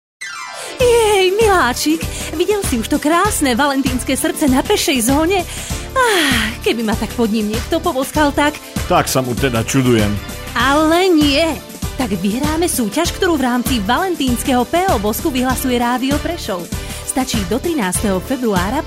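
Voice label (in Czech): reklami spot; soutez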